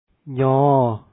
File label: y1 sound, lao alphabet sounds